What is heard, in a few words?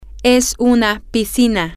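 it is a swimming pool, Spanish, female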